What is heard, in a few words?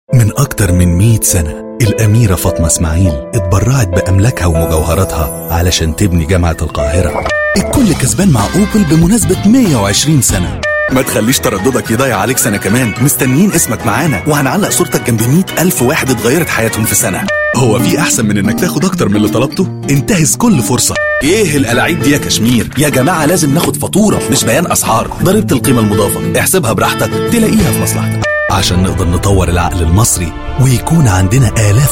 arabic voice over male commercial